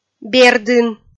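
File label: "бердын", Komi, female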